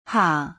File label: The letter H in German